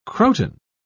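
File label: "croton", British English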